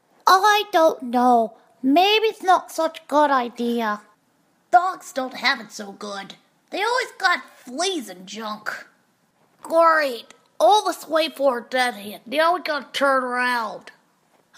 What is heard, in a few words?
Animation